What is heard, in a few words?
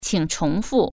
"please repeat", Chinese